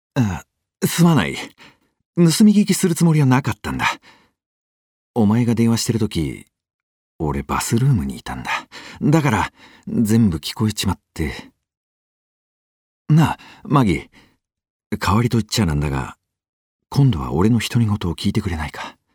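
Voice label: male ojisan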